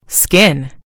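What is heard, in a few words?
"skin", British English; gcompris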